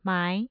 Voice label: "mai2", Mandarin tone